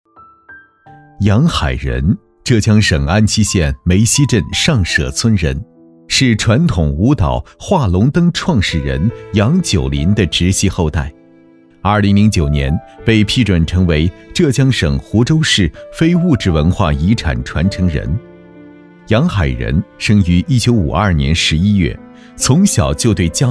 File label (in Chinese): 男-纪录片; 非遗传承人